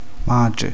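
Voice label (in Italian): "madre", Italian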